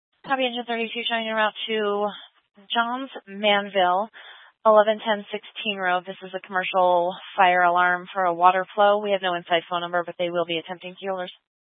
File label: Fire Department Dispatch; Grand Junction